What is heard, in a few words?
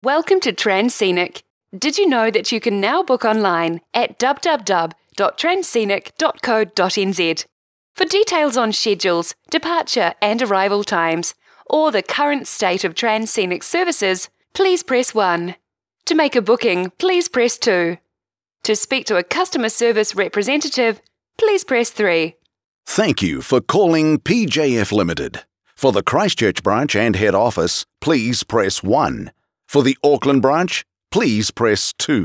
new zealand IVR auto attendant